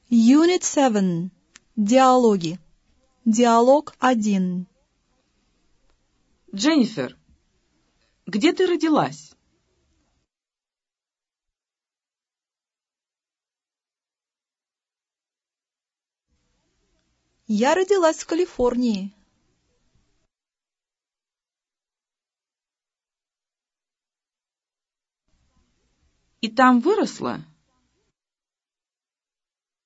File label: dialog, russian